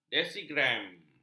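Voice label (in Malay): "desigram", Malay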